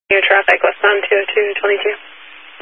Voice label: Highway Patrol, Phoenix Metro East Area, scanner